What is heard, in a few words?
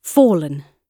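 "fallen", British English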